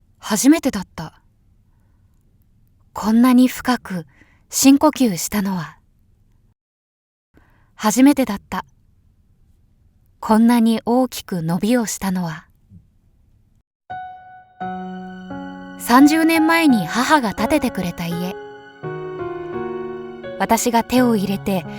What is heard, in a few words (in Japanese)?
ボイスサンプル モノローグ風ナレーション 不動産CM